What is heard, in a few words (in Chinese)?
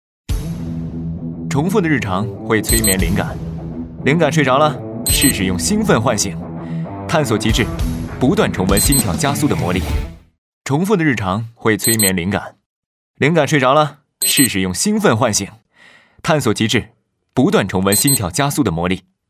男 广告 年轻男声 汽车; 极氪汽车